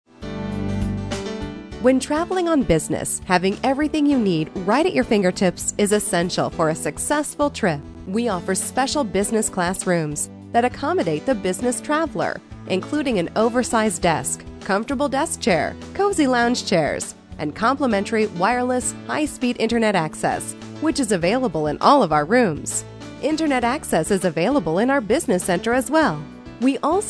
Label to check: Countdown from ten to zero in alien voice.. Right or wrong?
Wrong